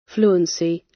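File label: "fluency", British; Language, linguistics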